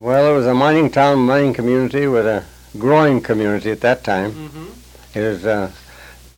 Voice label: Personal history with 87 year old male Chisholm Minnesota, american english dialect recordings